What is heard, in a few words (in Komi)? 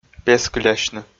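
"пескылясьны", Komi, male; audio dict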